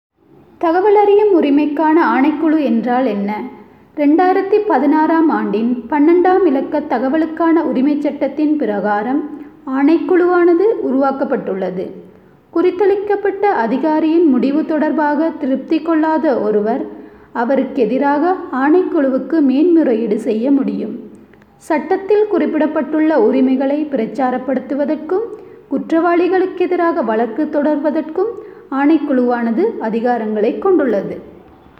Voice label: Tamil